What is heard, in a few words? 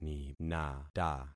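"ninata", concatenated syllables; Affixing experiment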